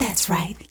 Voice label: "that's right", female; Voice

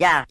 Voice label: "yeah" cartoon, voice

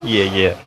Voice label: "yeah yeah", voice

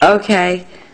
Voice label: "okay", expletives

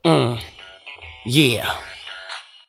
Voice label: "uh yeah" dope boy; ooh ahh

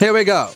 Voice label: here we go british bloke; Voice; introductions

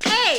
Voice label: "hey", Voice

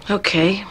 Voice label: "okay", expletives

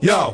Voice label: "yo", group of men; ooh ahh

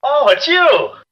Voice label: "oh it's you", male

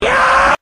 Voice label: "yuh" shouts; ooh ahh; voice

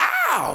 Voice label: ow male; ooh ahh; ow ouch

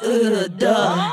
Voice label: "uhh dumb", Voice

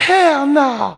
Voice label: "hell no" cartoon, Voice, expletives

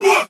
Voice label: what chant; ooh ahh

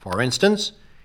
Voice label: announcer

